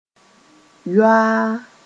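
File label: "yoaa", Mossi; discreetly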